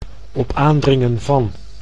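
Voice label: "op aandringen van", Dutch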